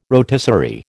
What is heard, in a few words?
"rotisserie", American